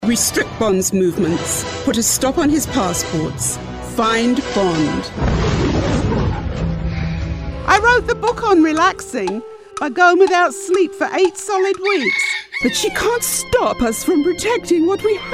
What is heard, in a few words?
Character Animation